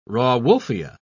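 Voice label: "rauwolfia", British English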